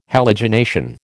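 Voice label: "halogenation", American English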